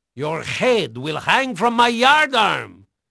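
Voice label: Portuguese male, voice; Pirates Of The Caribbean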